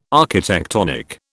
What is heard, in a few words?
"architectonic", British